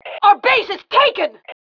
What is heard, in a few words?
our base taken, FEM